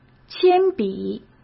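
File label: "铅笔", Mandarin Chinese; hsk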